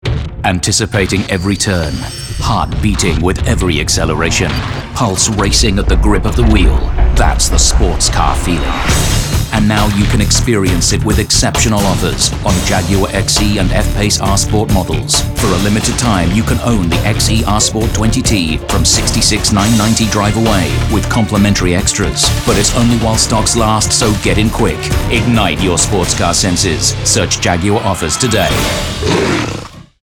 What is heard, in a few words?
British Accent voice over; Jaguar; Voice Overs Commercials